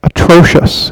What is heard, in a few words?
Peak Clipped